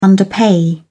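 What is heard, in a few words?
"underpay", English, British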